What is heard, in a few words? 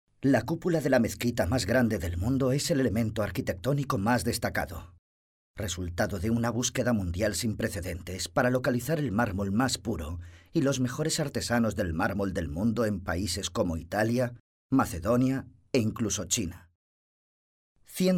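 spanish male narration; voice demos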